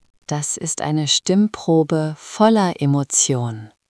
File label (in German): Nostalgisch, stimmen; moods